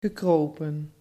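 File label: "gekropen", Dutch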